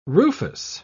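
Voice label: "rufous", English, British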